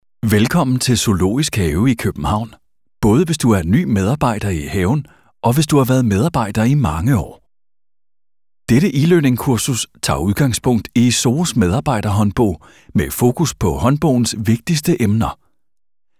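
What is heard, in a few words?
Danish e-learning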